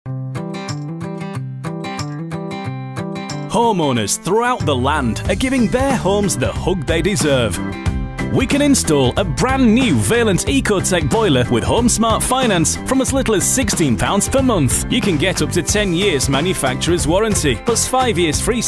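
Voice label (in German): English UK female Fremdtake Dynamisch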